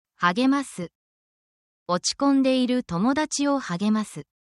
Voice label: japanese actions essential phrases

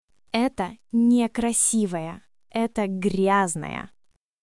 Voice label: russia, russian; ロシア語のネガティブなフレーズ; russian phrases to learn through insults and backbiting

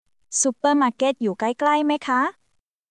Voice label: Thai, basic thai phrases

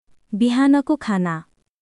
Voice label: Nepali, basic phrases

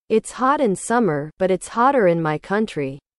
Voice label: english

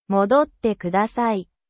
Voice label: japan

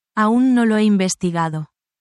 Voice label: spanish, spanish phrases

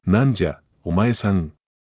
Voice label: anime

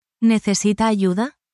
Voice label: spanish, spanish phrases

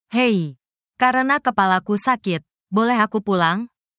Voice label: indonesian phrases

